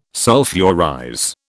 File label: "sulphurize", British